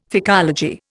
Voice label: "phycology", Indian; Dictionary